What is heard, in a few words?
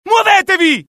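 alert, Italian; mohaa; dialogue; sighted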